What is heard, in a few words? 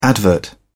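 "advert", British English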